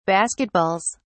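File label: "basketballs", British English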